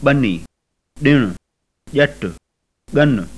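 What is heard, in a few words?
sindhi, implosiver; [ˈɓəni ˈɗinʊ ˈʄət̪ʊ ˈɠəŋʊ]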